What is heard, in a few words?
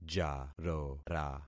"jarora", concat syllables; Affixing experiment